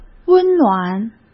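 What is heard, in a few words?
"温暖", Mandarin Chinese; hsk; chinese-flashcards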